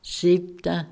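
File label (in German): "sìebta" Alsatian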